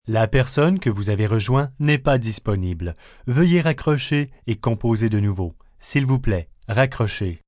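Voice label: Unavailable French, Telephone operator, French, male; VoiceBox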